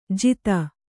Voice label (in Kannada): "ಜೀತ", Kannada